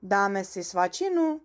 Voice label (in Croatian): češki; POP UP Zagreb